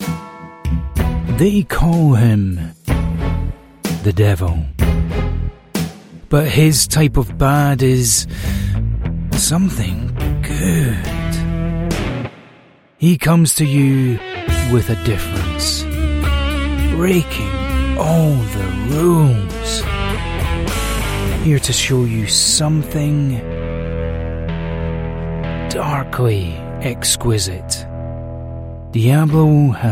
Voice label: english british commercial, authoritative